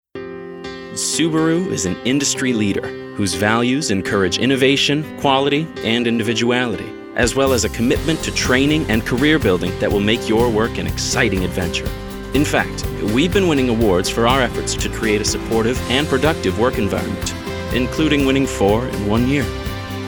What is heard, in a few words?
CORPORATE